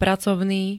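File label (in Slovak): "pracovný", Slovenské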